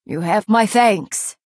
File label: Nord female thank you